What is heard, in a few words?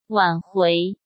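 "挽回", Mandarin Chinese; chinese-flashcards; hsk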